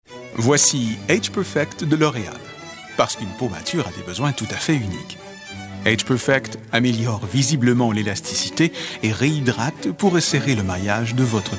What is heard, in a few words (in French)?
voix pub; L'Oréal